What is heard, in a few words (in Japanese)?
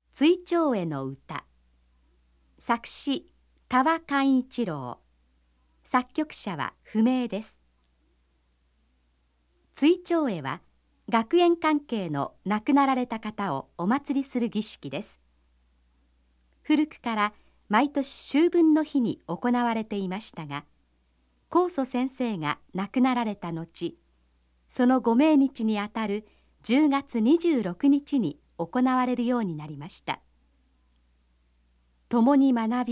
ナレーション